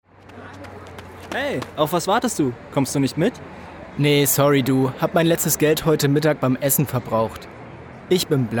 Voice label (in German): Werbung Sparkasse